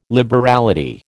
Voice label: "liberality", American